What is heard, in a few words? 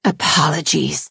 Imperial female "sorry"